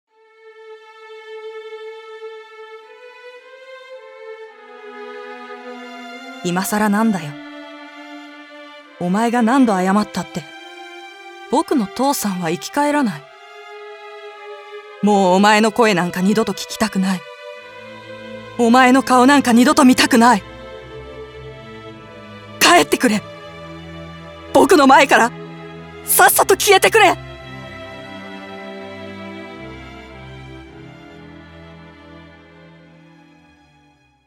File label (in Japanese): shounen